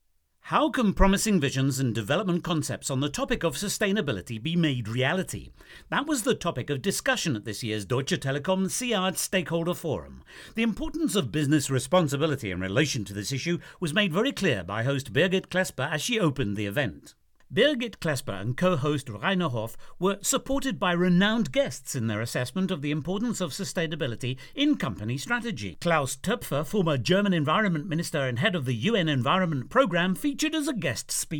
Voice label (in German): Eng Schnell; Telekom Stakeholder